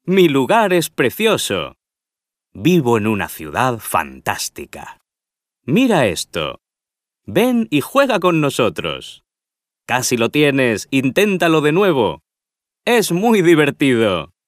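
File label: Spanish male children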